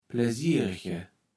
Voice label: "Pläsiregge", kaevels platt

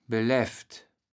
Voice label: "beläft", kaevels platt

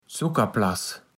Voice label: "Ssuckerplass", platt

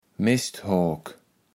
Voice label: "Mesthoak", kaevels platt

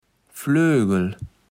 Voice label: "Flögel", Platt

